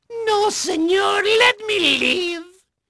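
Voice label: Spanish male, voice; Pirates Of The Caribbean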